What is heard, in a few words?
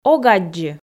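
"ог аддзы", Komi, female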